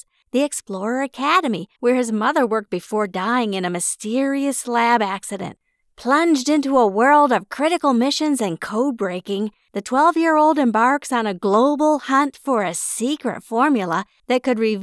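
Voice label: Kids Sampler, American voiceover actor who speaks English with a neutral accent; Female Juvenile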